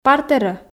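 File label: "партерӧ", Komi, female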